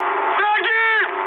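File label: Russian enemy detected mood high, speech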